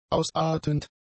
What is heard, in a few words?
"ausartend", German; adjectives